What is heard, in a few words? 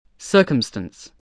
"circumstance", English, voice